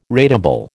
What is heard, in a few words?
"ratable", American